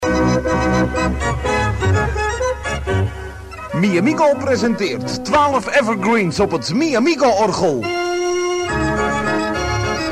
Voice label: commercial